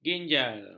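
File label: "ginjal", Malay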